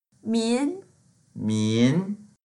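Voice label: "min2", Mandarin Chinese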